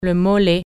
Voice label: calf, french

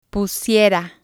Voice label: "pusiera", spanish

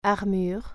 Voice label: "armor", French

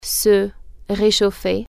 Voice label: "warmup", french

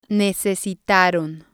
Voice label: "necesitaron", spanish

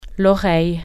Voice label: ear, french

